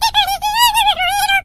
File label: Squeak lead vo